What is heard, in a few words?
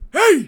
male "Hey", working People